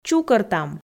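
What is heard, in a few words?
"чукӧртам" Komi, female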